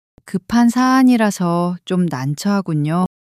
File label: sadness; monologue